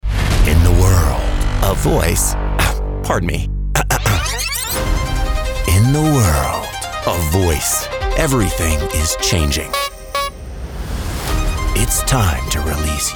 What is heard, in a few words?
Commercial